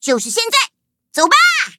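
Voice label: battle, Traditional Chinese; Cookie Run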